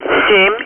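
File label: Speech, Russian, air traffic control; Digits; DCS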